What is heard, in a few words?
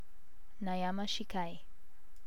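"nayamashikai", Japanese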